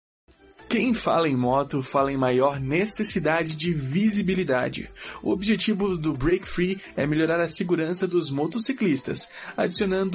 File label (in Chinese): 葡萄牙语男 外语 小语种